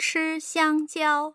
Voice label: chi xiang jiao, tone pairs